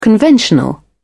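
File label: "conventional", British English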